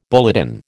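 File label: "bulletin", American English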